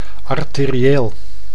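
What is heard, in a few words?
"arterieel", Dutch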